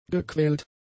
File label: "gequält", German; adjectives